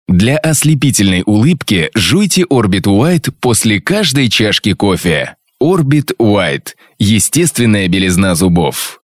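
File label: Russian commercial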